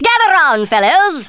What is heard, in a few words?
watch this, Speech Thespian; Worms Armageddon